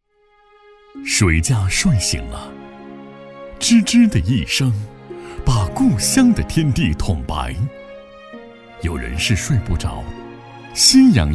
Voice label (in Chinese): 男 散文; 故乡的雪